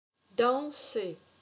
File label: "Danser", french